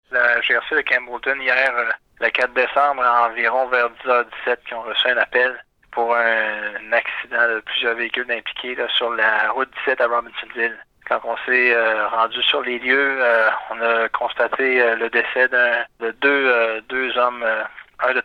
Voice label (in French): reportage accidents